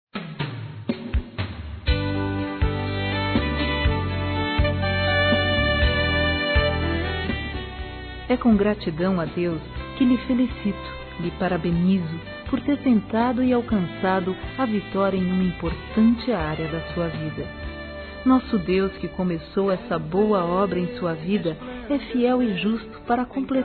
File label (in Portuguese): FIDELIDADE GERAL, Gospel, fem; Telemensagens; Telemensagens 2017